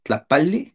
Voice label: "tlapalli", Náhuatl; color

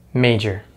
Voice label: "major", English; Consonants in American English; easypronunciation

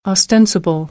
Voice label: "ostensible", American English; ɑː - ɒ

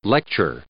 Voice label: "lecture" noun

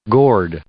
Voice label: "gourd", English; noun

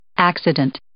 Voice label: "accident", English

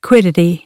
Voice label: "quiddity", American English

